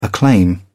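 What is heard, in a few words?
"acclaim", British English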